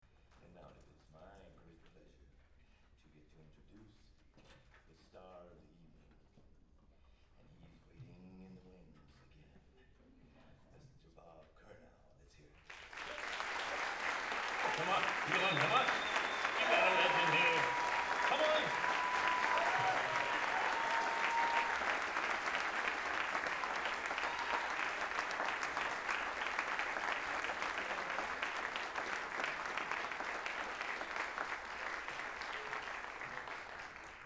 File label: Announcements